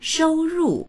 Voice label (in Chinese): "shou1ru4", voices; shōurù; 收入; 受け入れる；収入